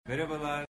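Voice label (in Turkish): "merhabalar", Turkish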